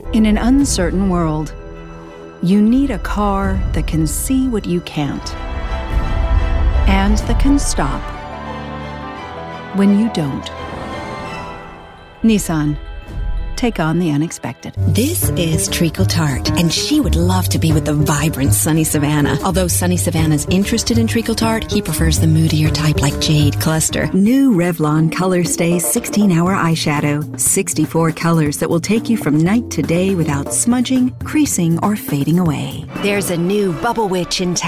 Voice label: Commercials, female